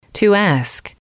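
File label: "to ask", English, female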